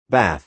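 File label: "bath", American English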